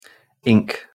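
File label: "ink" pronunciation English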